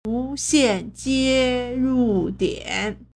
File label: "wu2xian4jie1ru4dian3", Chinese